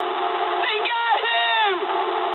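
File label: aircraft destroyed mood high, speech English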